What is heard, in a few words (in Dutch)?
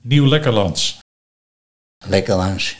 "Lekkerlans", Nieuw Lekkerlands dialect